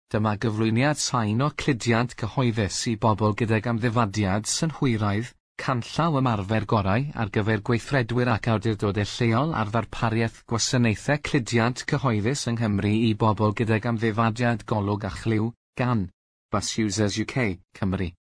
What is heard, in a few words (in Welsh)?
Cymraeg